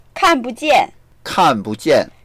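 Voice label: "kan4bujian4", Mandarin Chinese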